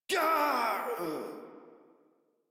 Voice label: Death Modular Corpus Male; Dialog; Barks; Battle; Warframe